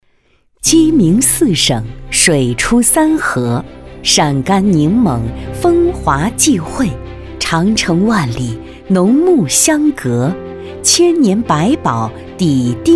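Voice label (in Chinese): 女 正式力量稳重专题, 国语配音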